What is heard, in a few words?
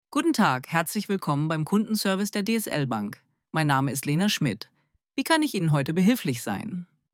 professional and calm